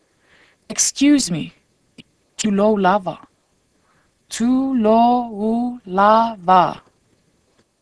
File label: Samoan Excuse